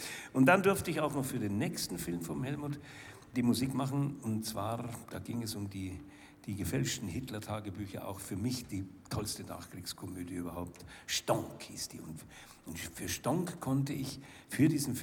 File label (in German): Ansage; Weltenbrand